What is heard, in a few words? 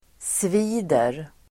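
"svider", Swedish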